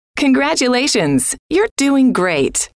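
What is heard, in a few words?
congratulations you're doing great, female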